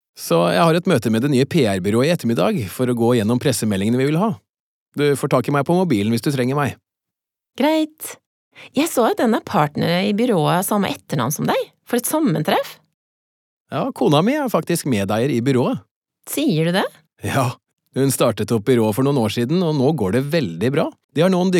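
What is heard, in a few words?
Norwegian dialogue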